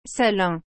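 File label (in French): "salin", French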